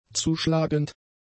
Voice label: "zuschlagend", German; adjectives

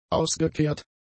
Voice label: "ausgekehrt", German; adjectives

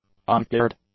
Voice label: "uncured", English; adjectives

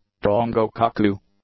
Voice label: "Drongo-Cuckoo", English; nouns

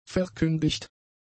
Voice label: "verkündigt", German; adjectives

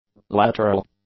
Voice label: "lateral", english; adjectives

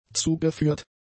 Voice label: "zugeführt", German; adjectives

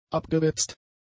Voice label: "abgewetzt", German; adjectives

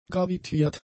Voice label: "gravitiert", German; adjectives

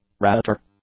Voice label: "ratter", English

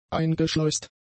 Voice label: "eingeschleust", German; adjectives

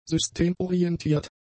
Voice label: "systemorientiert", German; adjectives

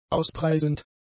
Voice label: "auspreisend", German; adjectives

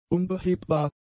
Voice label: "unbehebbar", German; adjectives

